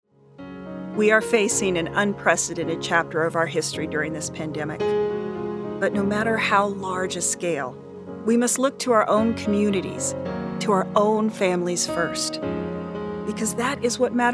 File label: VO COMMERCIAL